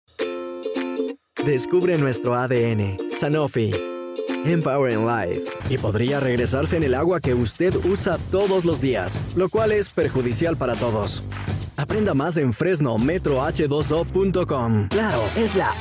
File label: Spanish Neutral Commercial